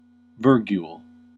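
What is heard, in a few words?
"virgule", US English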